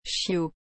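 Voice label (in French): "chiot", French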